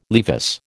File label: "Levis", American